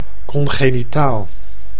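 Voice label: "congenitaal", Dutch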